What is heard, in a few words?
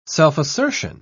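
"self-assertion", British English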